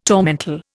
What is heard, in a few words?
"tormentil", Indian